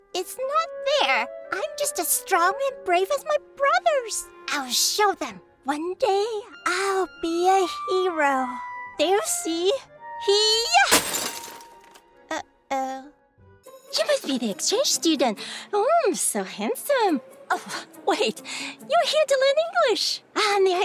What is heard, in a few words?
VO Characters